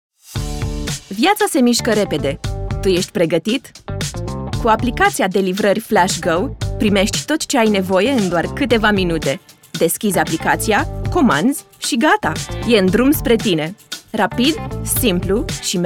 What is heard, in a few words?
COMMERCIAL ROMANIAN